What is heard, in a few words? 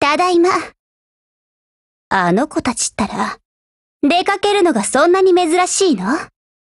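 OPERATION OVER Japanese, Voice; GF Alarm; FNFAL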